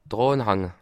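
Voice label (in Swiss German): "hanke", Bas Rhin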